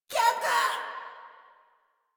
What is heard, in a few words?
Surprised Female; Warframe; Modular Corpus Female